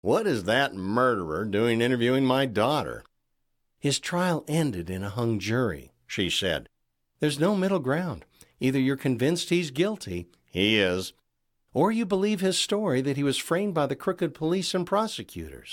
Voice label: Audiobook; Twofer Murder